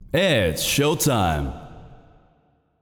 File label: Announcer Match Start; Feyrazzle